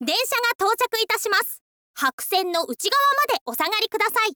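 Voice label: densha ga touchaku, Japanese, girl; voice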